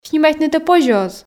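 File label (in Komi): снимайтнытӧ позьӧ оз, Komi, female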